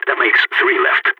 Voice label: three enemies left, balkan; vo

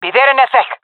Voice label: jungle fem epic; omw; vo; agents; Source Model Packs; Counter Strike Model Pack; CS2

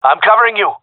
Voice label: covering friend, gendarmerie male; vo

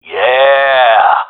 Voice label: cheer, gendarmerie male; vo

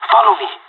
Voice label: radio follow me, pirate; Counter Strike Model Pack; CS:GO

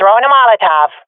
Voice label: throwing molotov, swat fem; Counter Strike Model Pack; CS:GO

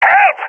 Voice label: request help, balkan; vo; balkan epic

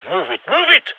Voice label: radio lets go; vo; gign

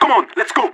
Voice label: radio lets go, vo agents; leet

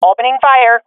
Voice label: attacking, swat fem; vo; agents; Source Model Packs; Counter Strike Model Pack; CS2

